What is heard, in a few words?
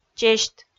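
"чесьт", Komi, female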